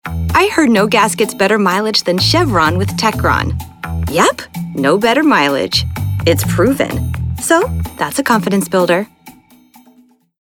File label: Cute; Chevron